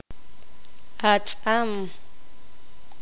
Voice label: poqomam; sal

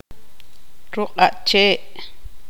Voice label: tzutujil; rama

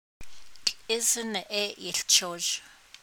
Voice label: The goat is chewing your clothes, Navajo; navajo clauses

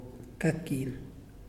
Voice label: Know, Muyuw; Lae Unitech

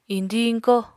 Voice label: "iñdiñ-iñkó", Apatani

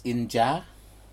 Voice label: inja chicken, Aneityum

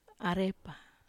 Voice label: "arepa", Wamesa

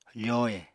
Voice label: "fohe", Apatani

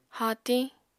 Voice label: "haatiñ", Apatani

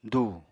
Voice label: "dù", Apatani

